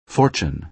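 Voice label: "fortune", American English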